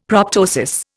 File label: "proptosis", Indian; Dictionary